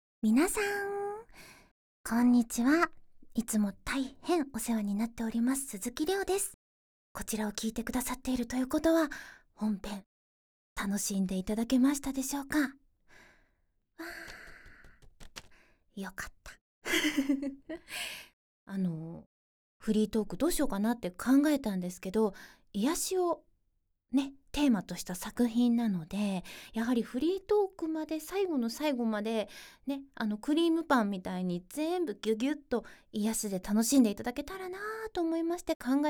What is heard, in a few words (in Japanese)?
フリートーク